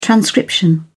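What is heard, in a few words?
"transcription", British English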